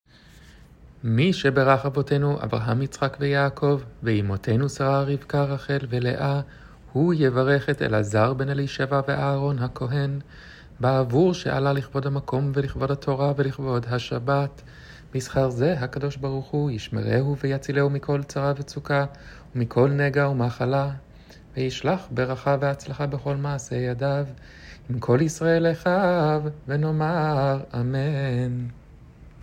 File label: misheberach male oleh shabbat; gabbai